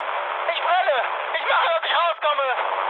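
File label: German on fire mood high, speech; War Thunder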